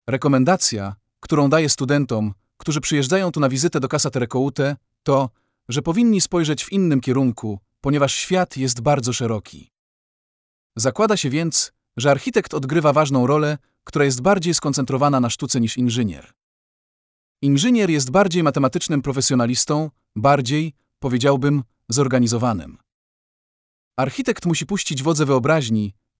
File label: POLISH